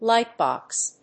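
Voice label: "light box", English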